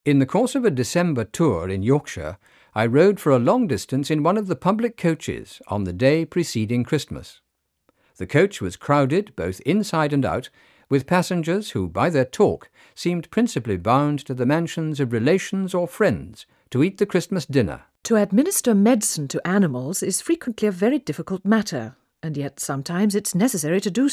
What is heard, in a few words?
rnnoise clean